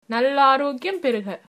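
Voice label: cheers, female, tamil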